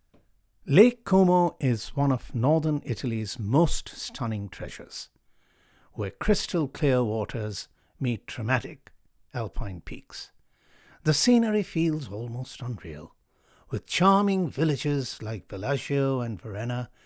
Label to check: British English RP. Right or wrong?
Right